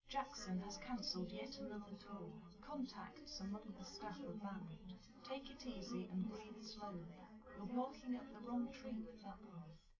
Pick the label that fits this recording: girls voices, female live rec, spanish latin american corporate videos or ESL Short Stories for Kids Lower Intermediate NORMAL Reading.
female live rec